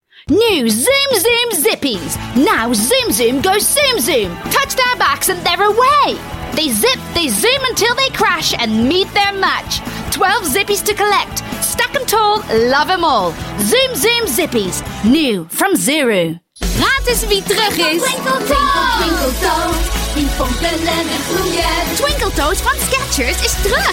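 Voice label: Dutch accented English; GoLocalise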